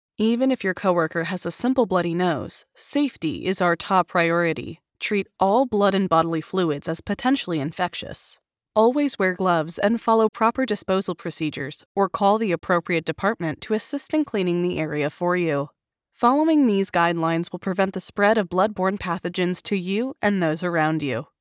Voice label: Blood Borne Pathogens Training Sample; elearning corporate training